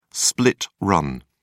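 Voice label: "split run", British English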